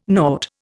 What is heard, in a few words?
"nought", Indian